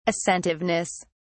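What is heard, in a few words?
"assentiveness", British English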